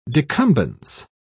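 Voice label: "decumbence", British English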